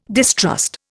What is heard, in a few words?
"distrust", Indian